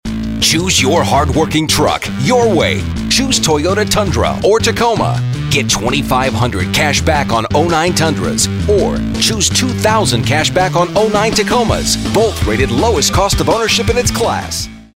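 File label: gritty, voice-over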